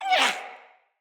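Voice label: Attack Corrupted Sprouts, en; Dialog; Battle Barks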